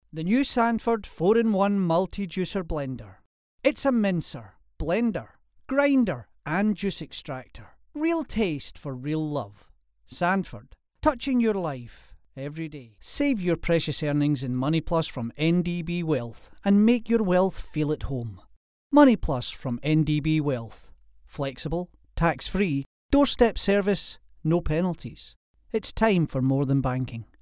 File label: male scottish voice over sales